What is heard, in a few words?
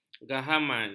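"gahaman", Malay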